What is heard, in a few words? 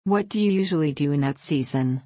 fast